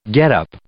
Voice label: "getup", English